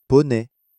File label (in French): "poney", French